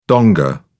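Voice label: "donga", British English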